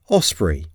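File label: "osprey", English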